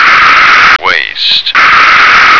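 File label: "waist", American English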